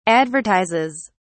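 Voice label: "advertises", British English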